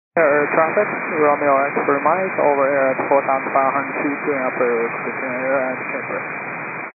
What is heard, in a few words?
122.925 MHz; scanner radio